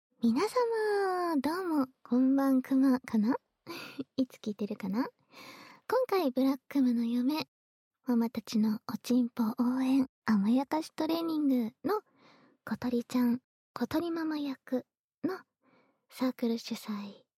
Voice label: フリートーク

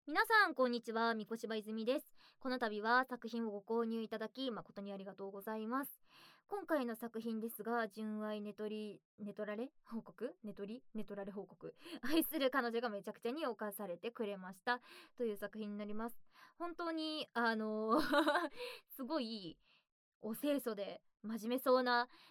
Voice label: フリートーク

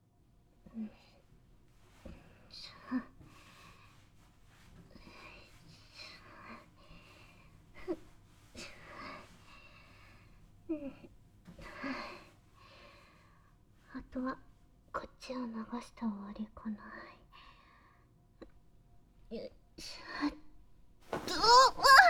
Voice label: お風呂掃除でご奉仕, ASMR

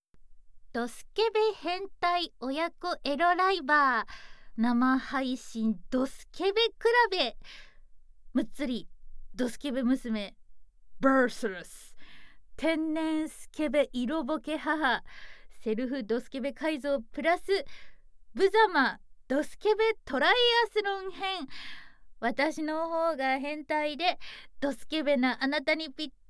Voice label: フリートーク

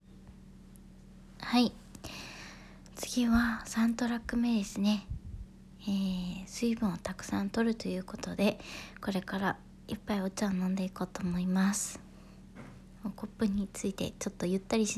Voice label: 飲み物がぶ飲み雑談, ASMR

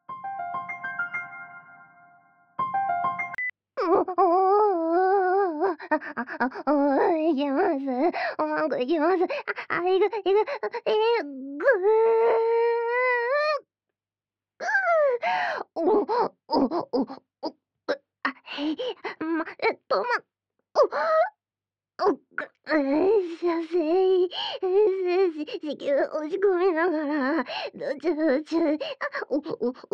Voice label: 通話; 寝取られ完堕ち（？）通話

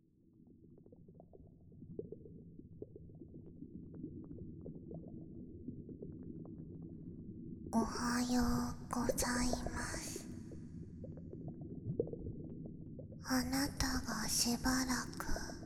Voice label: 入水耳舐メ(耳舐めサンドイッチ)【BGMなし】, ASMR

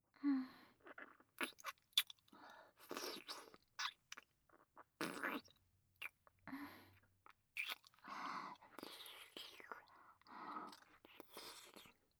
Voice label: 効果音なし, ASMR

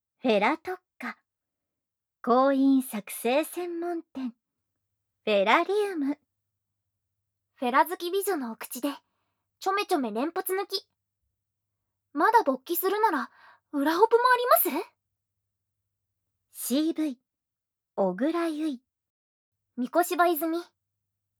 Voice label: タイトルコール, ASMR

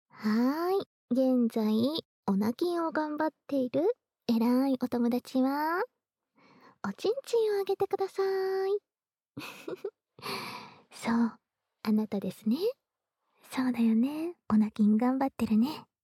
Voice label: オナ禁を妨害するフリートーク

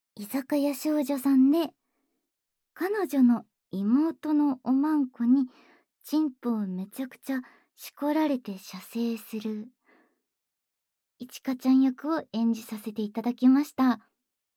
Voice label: フリートーク

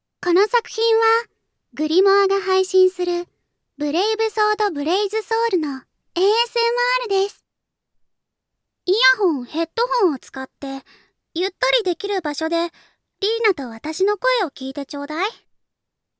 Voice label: タイトルコール

